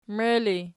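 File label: "mei" ergative, Archi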